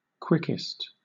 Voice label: "quickest", en